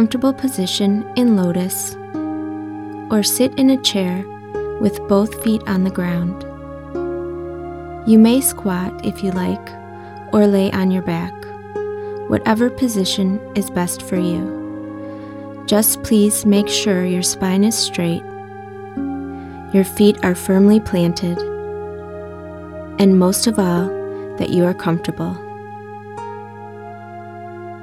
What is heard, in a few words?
Tree Meditation; Tree Meditation Demo